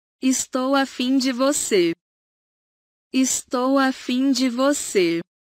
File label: i'm into you in portuguese